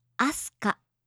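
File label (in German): "Asuka", japanische Mädchennamen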